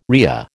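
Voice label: "rhea", American English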